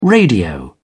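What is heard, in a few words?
"radio", British English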